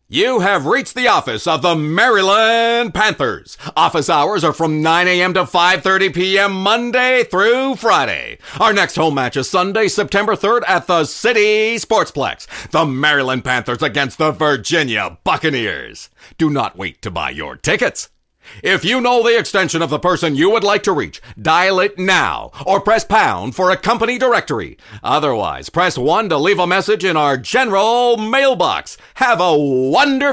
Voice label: Sportscaster